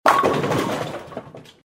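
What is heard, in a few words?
"strike", English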